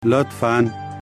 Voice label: please, pashto

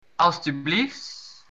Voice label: you're welcome, dutch

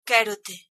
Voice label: i love you, galician

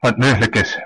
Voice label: please, West Flemish

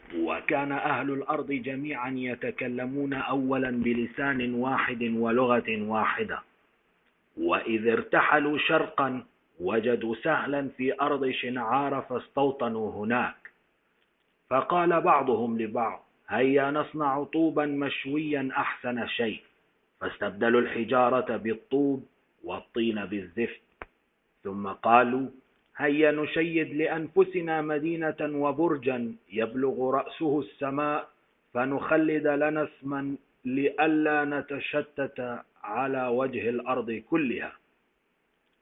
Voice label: Arabic

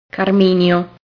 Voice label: "carminio", Italian

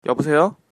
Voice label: hello, korean

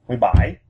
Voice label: seventeen vietnamese

